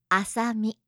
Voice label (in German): "asami", japanische maedchennamen